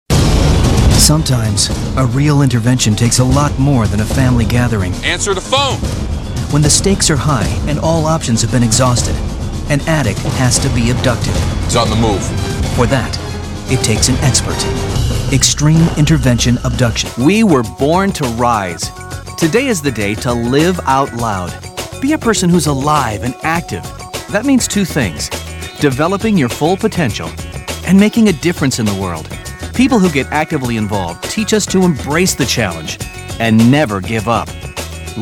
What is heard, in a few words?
Narration